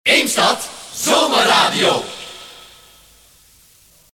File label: shout; zomerradio; radiopiraten; Eemstad